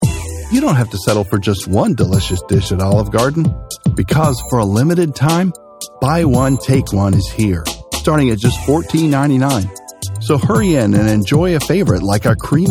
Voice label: Commercial Casual